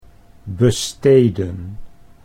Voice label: "besteden", Dutch

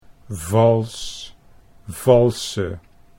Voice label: "vals valse", Dutch

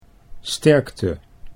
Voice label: "sterkte", Dutch

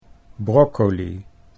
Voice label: "broccoli", Dutch

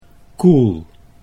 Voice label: "koel", Dutch

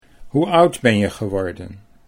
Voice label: hoe oud geworden, Dutch

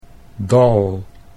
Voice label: "dal", Dutch; 'valley'

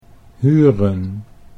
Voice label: "huren", Dutch

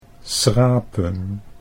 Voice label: "schrapen", Dutch; 'to scrape'

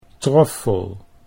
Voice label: "troffel", Dutch

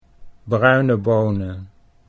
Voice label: "bruine bonen", Dutch

